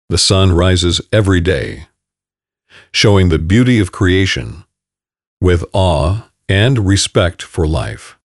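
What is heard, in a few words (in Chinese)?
外籍男英_外语_美式英语_大气; Bercom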